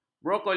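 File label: "brokoli", Malay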